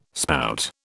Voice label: "spout", British

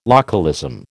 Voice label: "localism", American

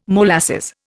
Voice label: "molasses", Indian

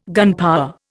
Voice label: "gunpower", Indian

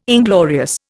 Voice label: "inglorious", Indian

